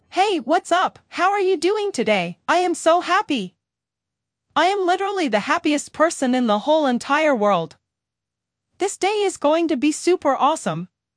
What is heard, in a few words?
cheerful